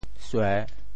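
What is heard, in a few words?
"sue3", Teochew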